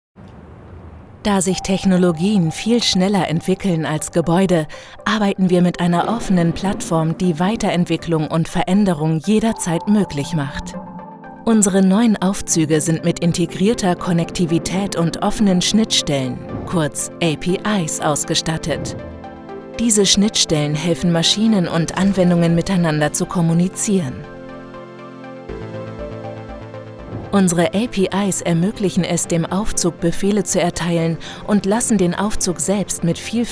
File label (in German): Imagefilm